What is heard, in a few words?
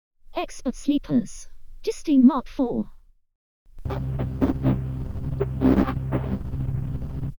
Vocoder Speech